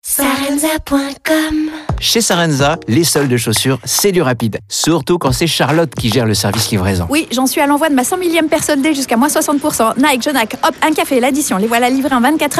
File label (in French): radio pub spot